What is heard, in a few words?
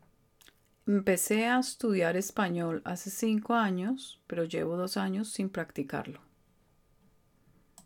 spanish recording